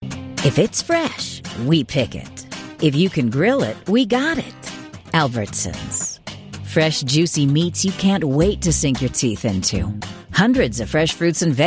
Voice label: Broadcast Commercial